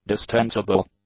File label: "distensible", English; adjectives